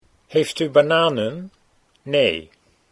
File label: heeft U bananen nee, Dutch